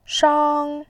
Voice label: "shang1", voice